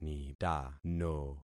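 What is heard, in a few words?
"nitano", concatenated syllables; Affixing experiment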